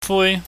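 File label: "fui", Cantonese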